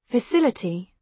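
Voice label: "facility", British English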